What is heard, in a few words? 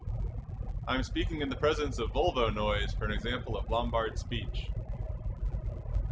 car enhanced m, Lombard; Single Channel Noise